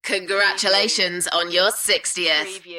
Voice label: UK female